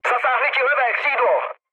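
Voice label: left wing, Czech, mood low; War Thunder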